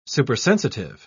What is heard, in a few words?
"supersensitive", en uk